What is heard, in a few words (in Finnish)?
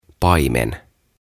"Paimen", "Sheperd"